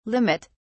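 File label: "limit", British English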